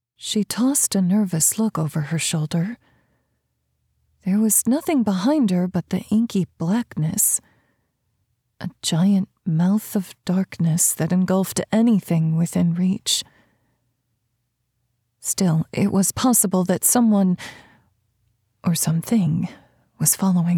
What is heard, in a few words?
Suspense, 3rd Person; What is that